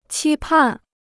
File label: "期盼", Chinese